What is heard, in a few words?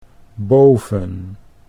"boven", Dutch